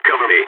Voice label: cover me, balkan; Counter-Strike Global Offensive